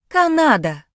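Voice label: "canada", Ukrainian; gcompris; geography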